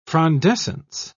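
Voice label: "frondescence", British English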